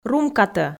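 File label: "румкатӧ" Komi, female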